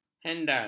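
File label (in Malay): "hendal", Malay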